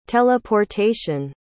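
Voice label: "teleportation", English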